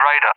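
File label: "radar" English, speech; UK; Target; DCS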